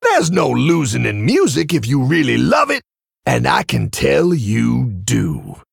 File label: Male Tauren